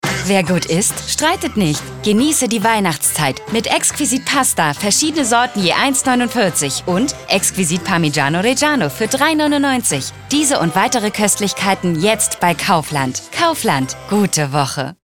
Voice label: Werbung; Kaufland

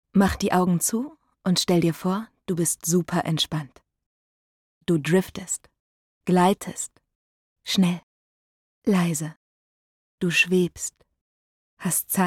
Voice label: Werbung

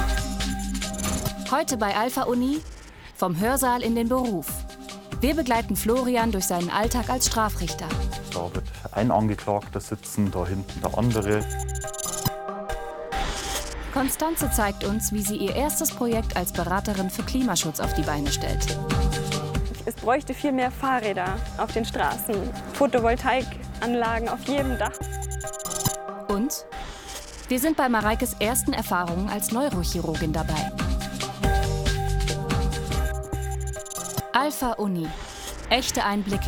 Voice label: Kommentar; ARD - Alpha Uni

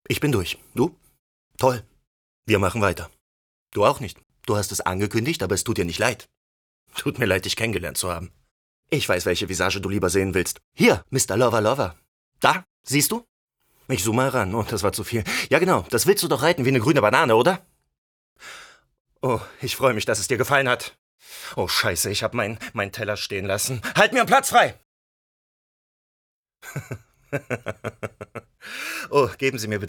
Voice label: Synchron; beleidigend / provozierend

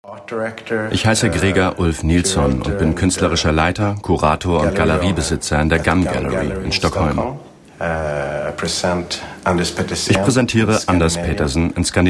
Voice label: Doku; ARTE; Künstler hautnah

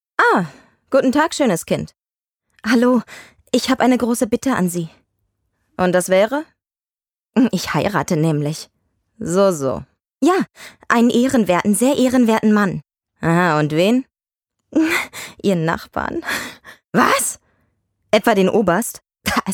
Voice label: Hoerbuch; Heirat

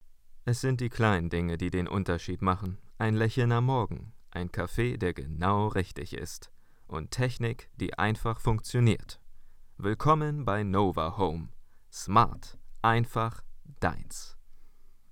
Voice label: Werbespot

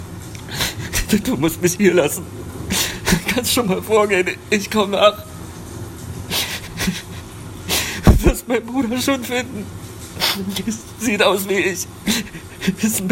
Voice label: traurig

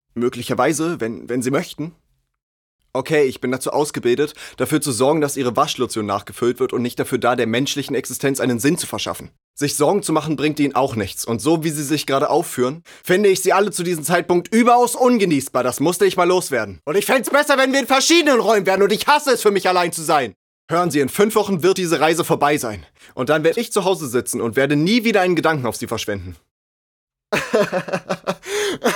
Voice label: Synchron; aufgebracht

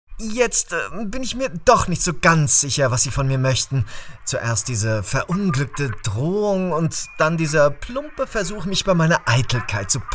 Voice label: Arroganz

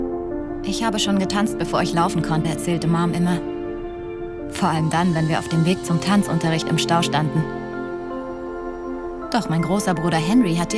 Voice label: Stimme jung Sprachprobe; Heartbeats - Rolle: Kelli

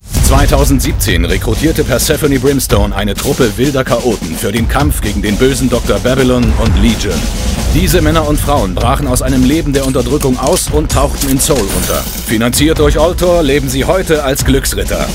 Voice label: Trailer Computerspiel

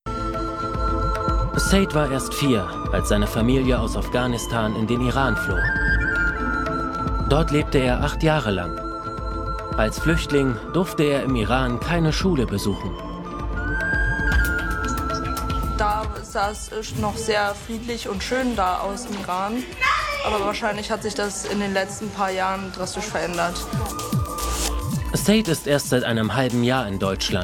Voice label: Off Stimme

